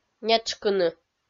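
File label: "нетшкыны", Komi, female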